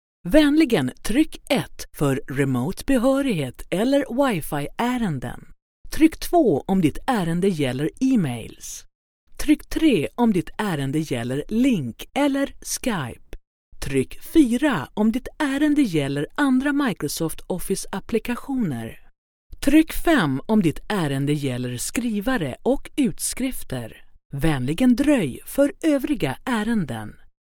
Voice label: swedish ivr